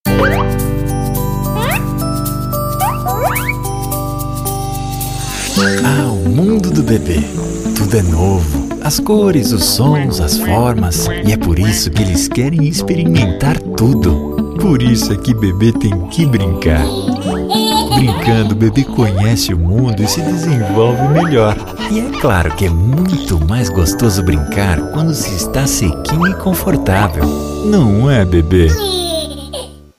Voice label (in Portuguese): Spot, Locução; Spot 30 segundos; Locução para Spot de 30 seg.; Ford; Novela